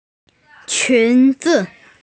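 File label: "skirt", female; qun2zi5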